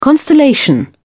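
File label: "constellation", English, British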